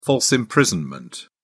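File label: "false imprisonment", English, British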